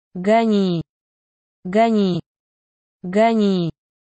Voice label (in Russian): "Goni", russian dog commands